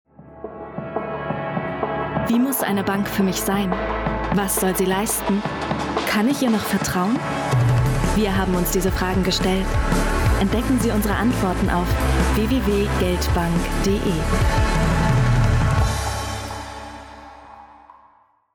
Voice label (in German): Werbung Bank Musik